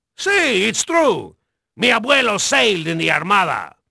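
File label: Spanish male, voice; Pirates Of The Caribbean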